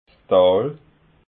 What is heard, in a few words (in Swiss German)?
"tal", alsace